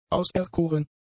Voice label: "auserkoren", German; adjectives

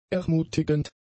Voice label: "ermutigend", German; adjectives

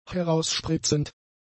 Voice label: "herausspritzend", German; adjectives

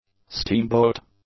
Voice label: "steamboat", english

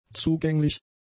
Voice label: "zugänglich", German; adjectives

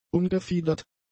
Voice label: "ungefiedert", German; adjectives

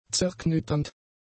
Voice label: "zerknitternd", German; adjectives

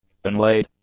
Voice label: "unlaid", English; adjectives

